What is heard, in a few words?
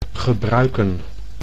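"gebruiken", Dutch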